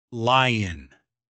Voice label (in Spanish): león inglés; palabras ingles infantil